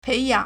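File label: "pei2yang3", Mandarin Chinese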